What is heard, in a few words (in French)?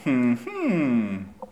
hum reflexion